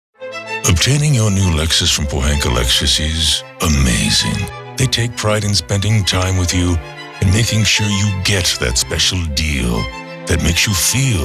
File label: Car Spot, auto car spots